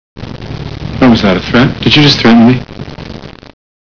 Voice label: threat